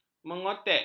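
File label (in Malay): "mengotek", Malay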